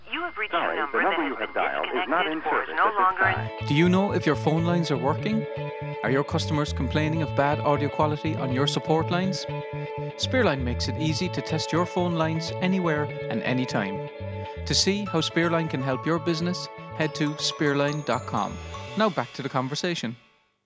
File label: Podcast Advert; Spearline